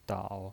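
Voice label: "dao", Mandarin Chinese, third tone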